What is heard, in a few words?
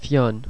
"fionn", ireland language pronounciation; Monophtongs